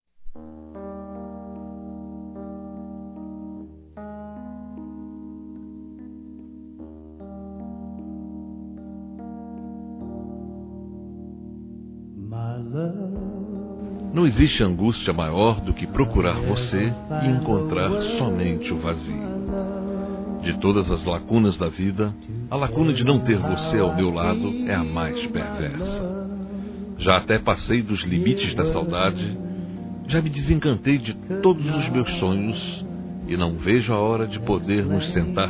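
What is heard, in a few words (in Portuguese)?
RECONCILIAÇÃO Masculino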